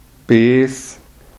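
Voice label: "bees", Swiss German, markgräflerisch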